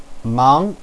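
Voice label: "mang", Mandarin Chinese, second tone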